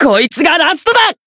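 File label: Voice finish, Japanese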